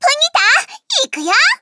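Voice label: start, Japanese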